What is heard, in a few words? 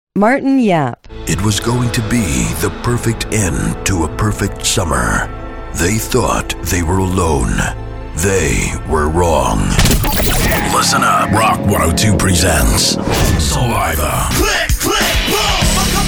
english us m commercial, voice demos